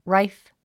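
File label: "rife", English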